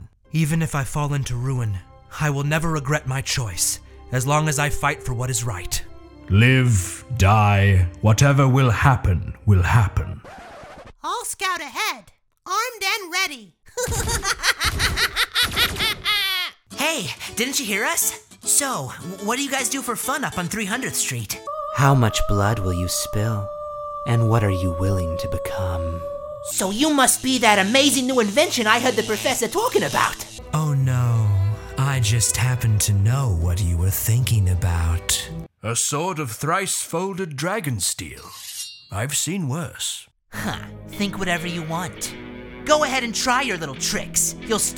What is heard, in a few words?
Animation